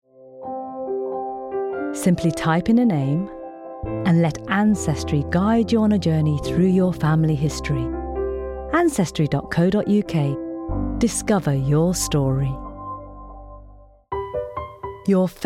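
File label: commercial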